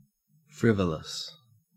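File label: "frivolous", Australian English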